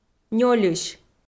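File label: "нёльысь" Komi-Zyrian, female; kpv audio dict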